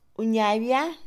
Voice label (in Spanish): banco, Mixe, Mujer; Casa; INALI